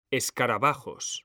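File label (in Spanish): "escarabajos", Spanish; mekanta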